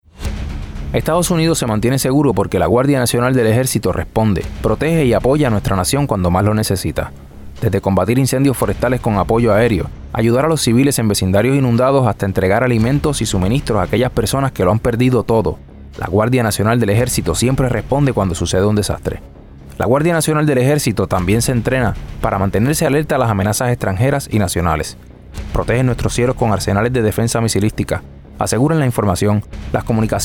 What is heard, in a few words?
Radio Spanish; MA Guard